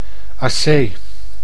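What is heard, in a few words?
"assay", Dutch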